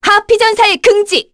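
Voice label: Vox Skill, Korean; Naila; kingsraid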